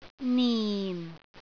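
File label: "nin4", Cantonese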